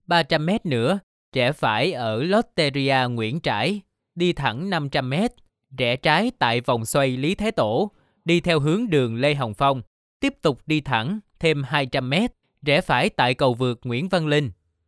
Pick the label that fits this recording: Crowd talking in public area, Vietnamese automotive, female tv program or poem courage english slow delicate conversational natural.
Vietnamese automotive